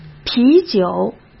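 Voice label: "啤酒", Mandarin Chinese; hsk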